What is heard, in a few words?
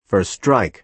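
"first strike", American English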